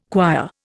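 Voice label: "quire", Indian English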